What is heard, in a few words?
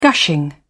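"gushing", British English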